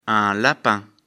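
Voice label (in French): "lapin", French; afrikalan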